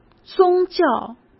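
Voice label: "宗教", Mandarin Chinese; hsk; chinese-flashcards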